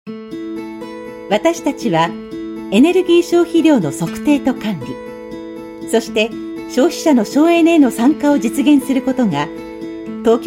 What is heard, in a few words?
Japanese Voice Sample, Female